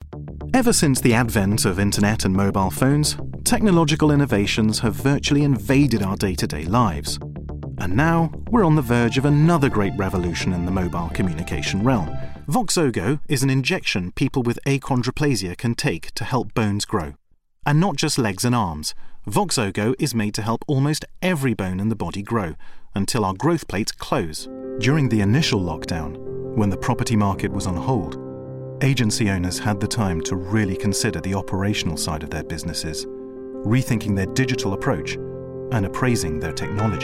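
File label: British English, Corporate; GoLocalise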